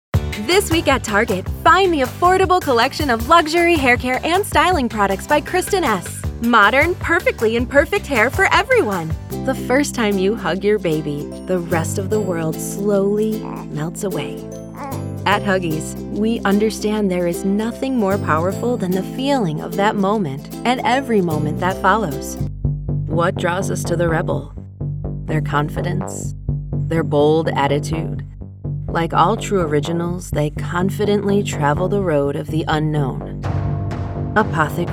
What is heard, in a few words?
Commercial